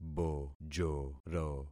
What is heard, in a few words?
"bojoro", concat syllables; Affixing experiment